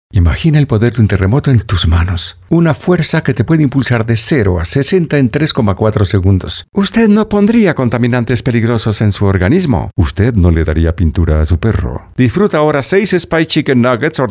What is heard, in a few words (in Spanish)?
spanish commercial natural